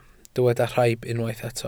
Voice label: "rhaib", welsh